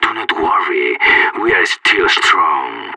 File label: pep talk, separatist; player vo